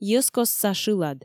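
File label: "йӧзкостса шылад", Komi, female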